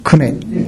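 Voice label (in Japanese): "kune", 南山形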